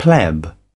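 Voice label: "pleb", British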